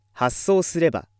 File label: male